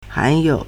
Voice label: "han2you3", Chinese